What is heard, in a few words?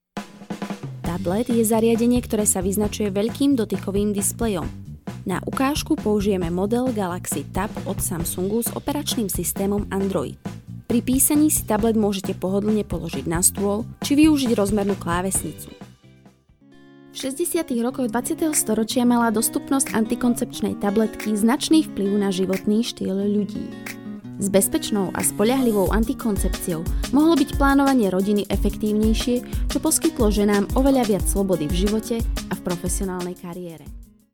Slovak